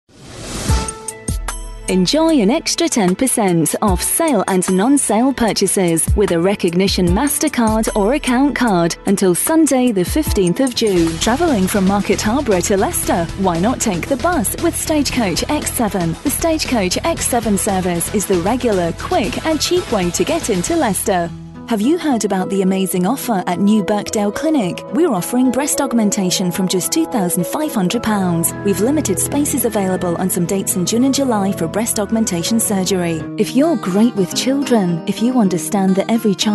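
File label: commercials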